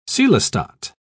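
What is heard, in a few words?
"coelostat", English, British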